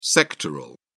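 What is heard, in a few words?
"sectoral", British English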